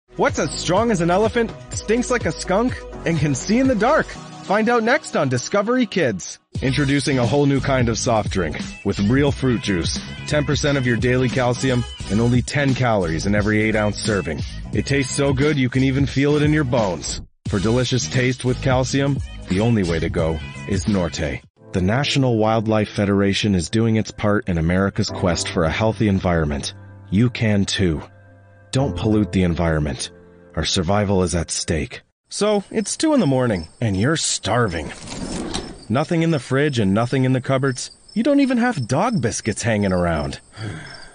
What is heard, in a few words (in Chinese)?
外籍男英 外语 美式英语 活力